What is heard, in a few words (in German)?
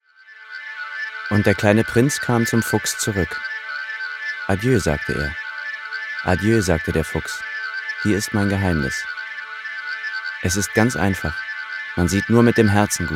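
hoerbuch, deutsch männer; sprecher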